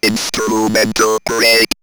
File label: Robot Instrumental Break, robot vox; X-Static Goldmine